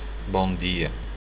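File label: good morning, portuguese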